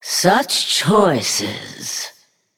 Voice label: Female Naga Thinking